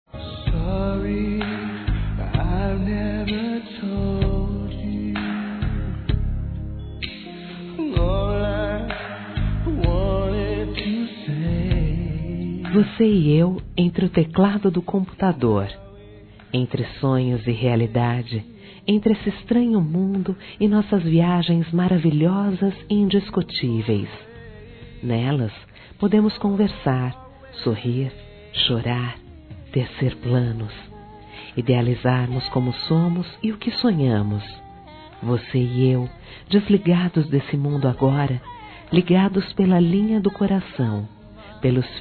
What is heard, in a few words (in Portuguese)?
AMIZADE VIRTUAL, Telemensagens, Fem